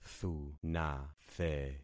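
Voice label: "thunathe", concatenated syllables; Affixing experiment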